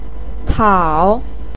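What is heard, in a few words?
"tao3", Mandarin Chinese, pinyin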